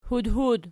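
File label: "hudhud" nominative, Archi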